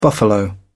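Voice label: "buffalo", British English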